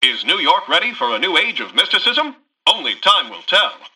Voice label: Newscaster headline